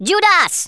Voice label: "traitor", Rasta